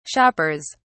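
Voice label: "shoppers", British English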